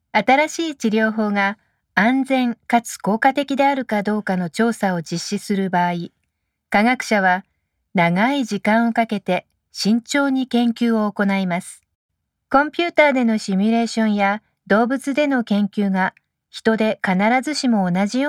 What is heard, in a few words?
Corporate Voice